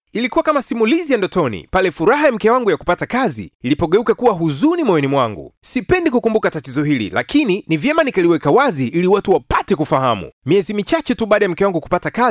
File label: male swahili voice over talent sales